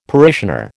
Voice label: "parishioner", American